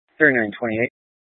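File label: Incident Tactical, scanner audio recording; Incident Tac 3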